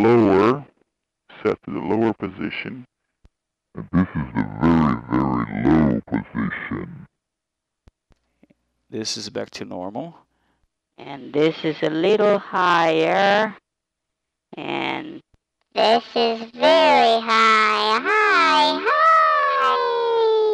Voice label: Voice Effector, Casio Rapman